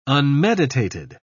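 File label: "unmeditated", British English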